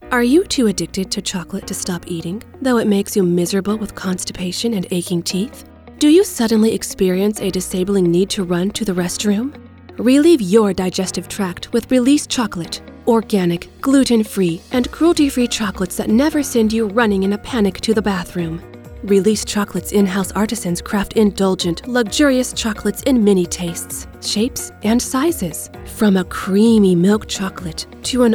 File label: commercial sampler